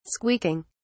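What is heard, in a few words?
"squeaking", British English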